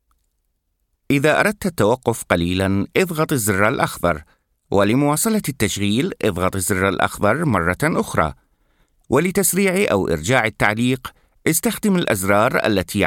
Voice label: Arabic

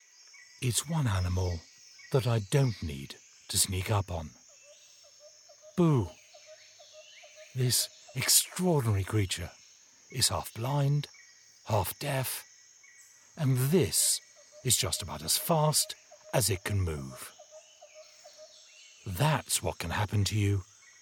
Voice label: English UK

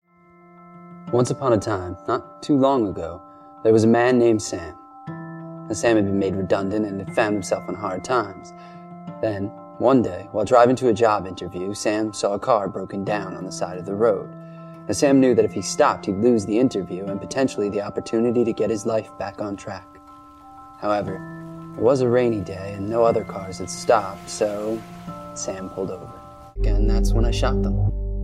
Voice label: English US

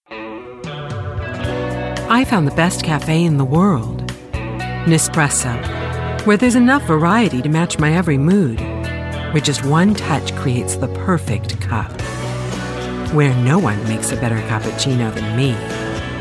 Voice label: English US

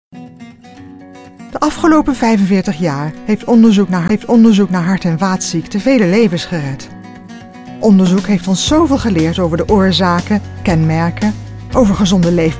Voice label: Dutch

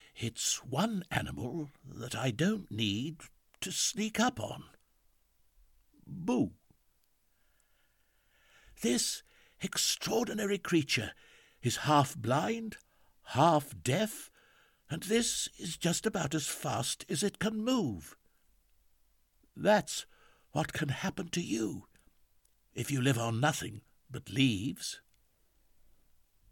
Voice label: English UK

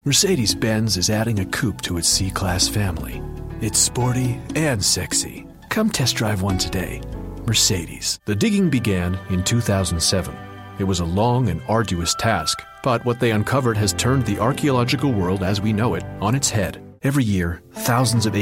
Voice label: English US

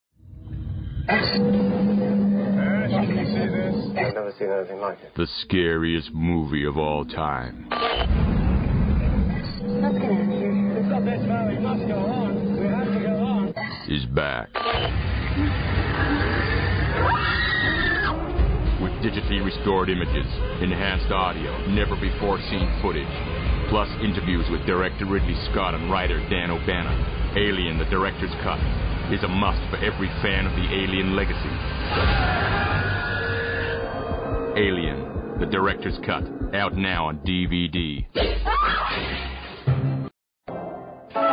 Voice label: English US

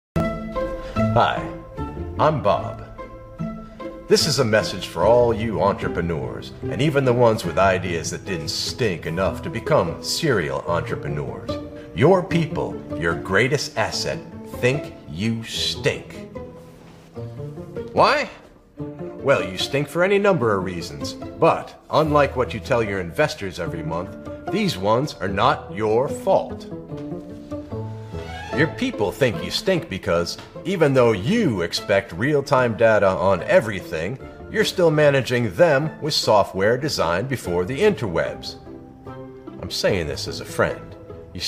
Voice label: English US

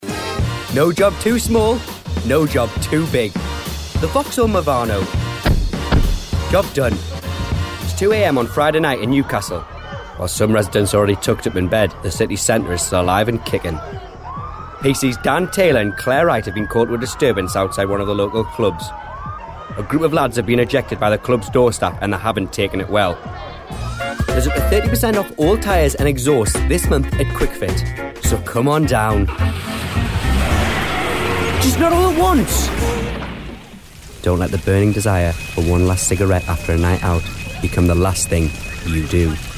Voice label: English UK

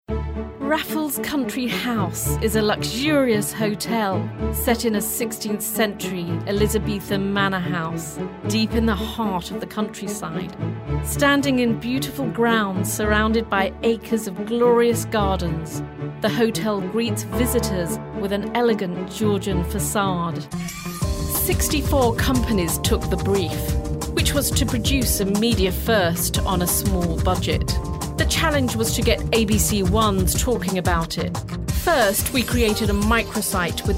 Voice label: English Uk

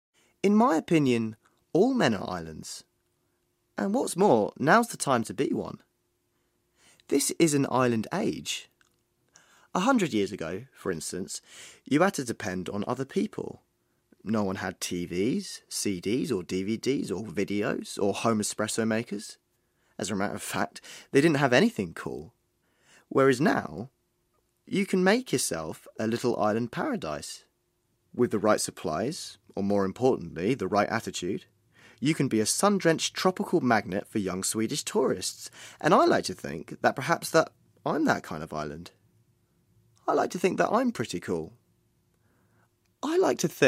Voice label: English UK

